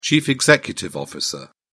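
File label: "chief executive officer", British English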